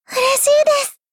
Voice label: shock